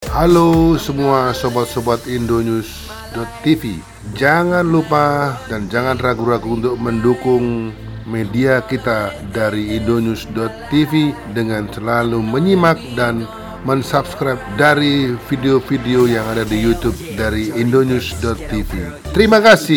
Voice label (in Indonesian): SUARA PROMO